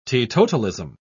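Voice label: "teetotalism", British English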